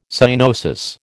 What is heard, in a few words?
"cyanosis", American